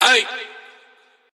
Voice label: "ay", voice